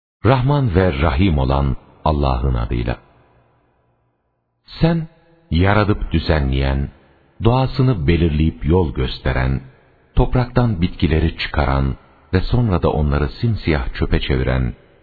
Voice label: ترجمه گویای ترکی استانبولی; سوره اعلی

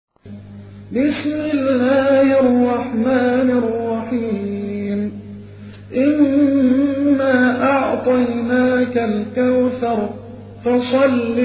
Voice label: ترتیل سوره مبارکه کوثر; محمد عبدالعزیز حصان

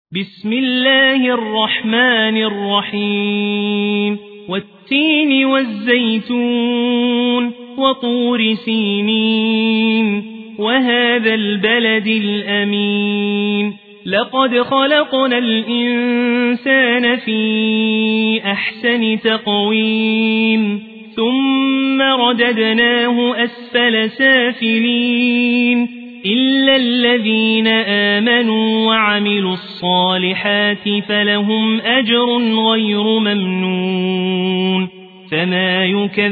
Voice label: ترتیل; سوره تین

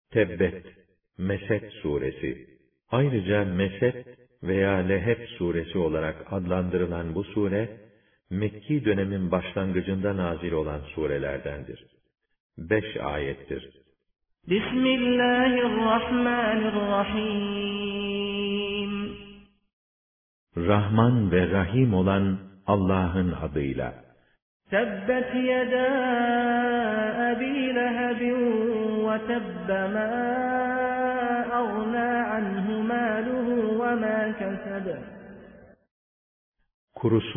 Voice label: ترجمه گویای قرآن کریم به زبان ترکی استانبولی سوره مسد

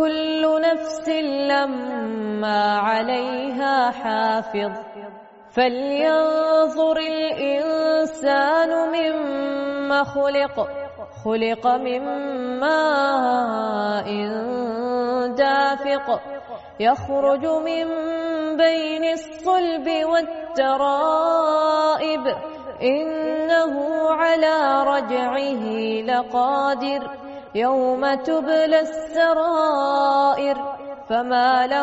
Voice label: تلاوت ترتیل سوره مبارکه طارق; آیات1 17

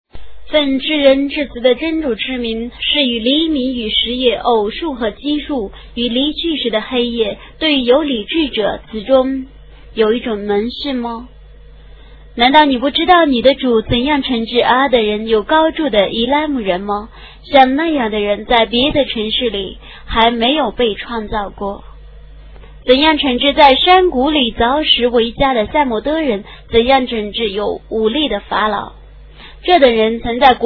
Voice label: ترجمه گویای قرآن کریم به زبان چینی; سوره فجر

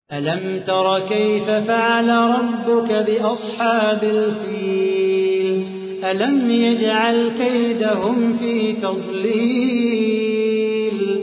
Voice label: ترتیل سوره فیل; صلاح بوخاطر

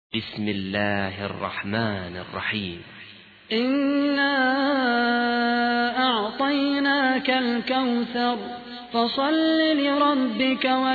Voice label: ترتیل سوره کوثر

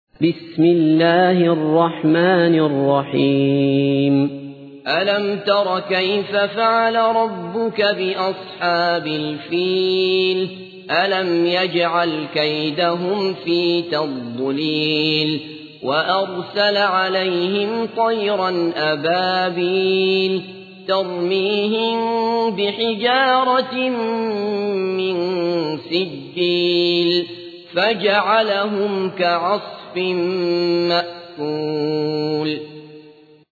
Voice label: ترتیل سوره فیل; عبدالله بصفر

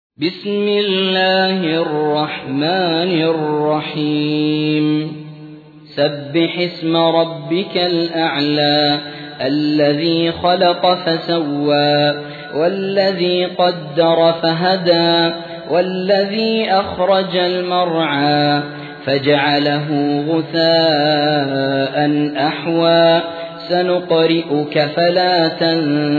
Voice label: ترتیل; سوره اعلی

